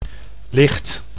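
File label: "licht", Dutch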